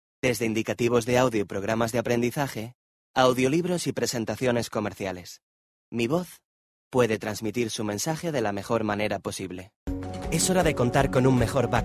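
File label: Spanish European General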